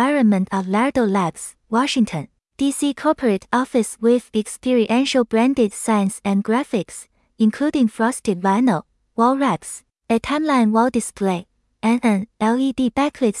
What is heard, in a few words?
commercial; laerdal labs d c; interior